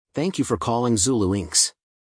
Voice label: welcome, phone